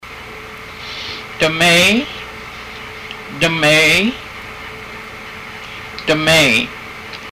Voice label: ankle bone, haida language